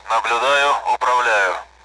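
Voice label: Speech Sound Russian Common AWACS; take control; DCS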